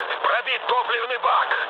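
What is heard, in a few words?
tank damaged, mood med, Russian; War Thunder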